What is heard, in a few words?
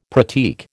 "pratique", American